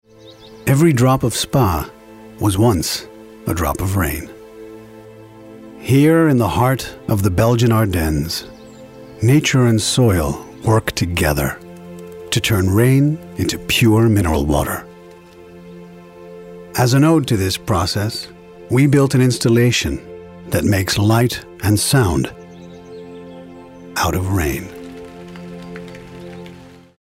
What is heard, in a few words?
authoritative inspiring warm; Spa